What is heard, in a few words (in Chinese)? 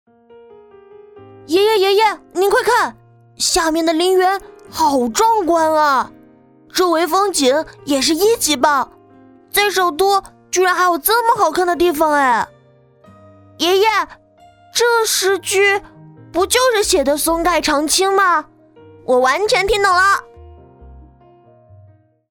角色男生